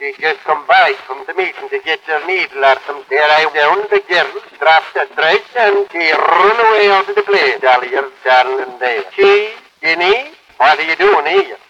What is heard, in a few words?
scottish gibberish what are you doing here; Voice